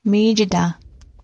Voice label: bon appetit, ojibwe